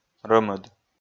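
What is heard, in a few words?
"рӧмыд", Komi, male; kpv audio dict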